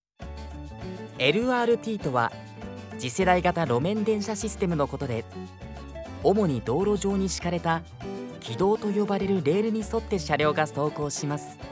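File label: voice sample, narration, corporate promotional video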